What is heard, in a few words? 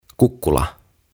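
"Kukkula" Finnish; Hill; OTAVA KONSERNI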